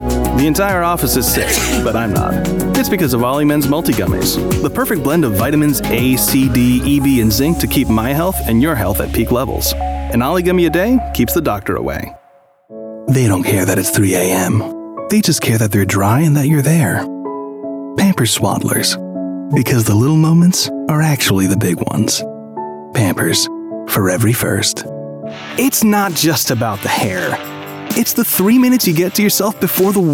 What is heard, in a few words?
Commercial English